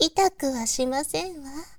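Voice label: "itakuhasimasennwa", Japanese, girl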